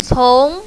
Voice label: "cong2", mandarin, female; 丛